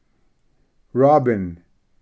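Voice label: "robin", English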